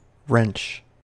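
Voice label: "wrench", US English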